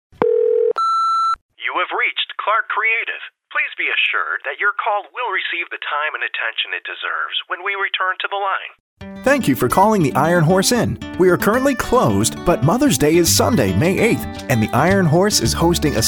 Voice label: Voiceovers IVR